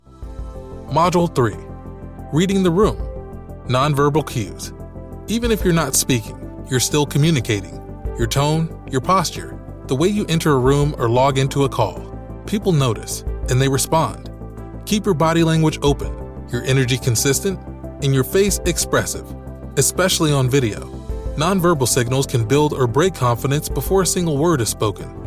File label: Corporate Communication Training, E-Learning, Friendly, conversational, approachable Male Voice